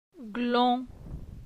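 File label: "Glon", French; phonetique; la syllabe on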